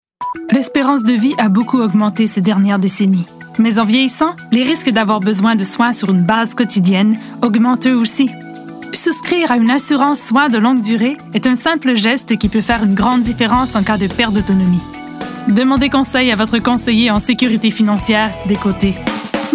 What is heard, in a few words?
Commercial FR; TaDa